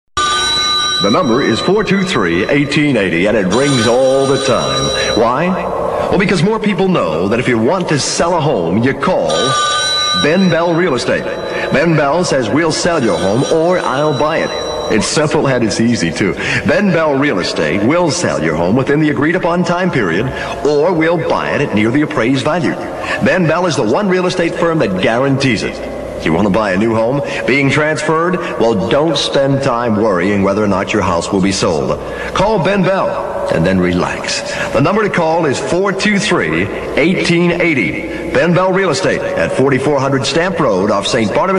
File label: spot; ben bell real estate